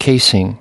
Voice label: "casing", US